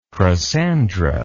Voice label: "crossandra", english

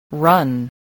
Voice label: "run", English spelling

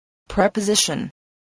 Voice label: "preposition", voice, spelling

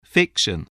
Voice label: "fiction", voice

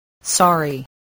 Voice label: "sorry", English voice

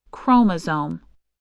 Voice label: "chromosome", English, voice

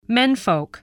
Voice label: "menfolk", voice, spelling

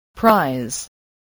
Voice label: "prize", voice, spelling

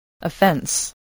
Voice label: "offense", voice, spelling

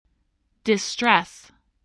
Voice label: "distress", spelling

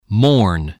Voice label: "mourn", voice, spelling

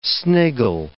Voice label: "sniggle", english

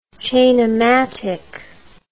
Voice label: "chainomatic", English

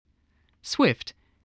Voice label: "swift", English

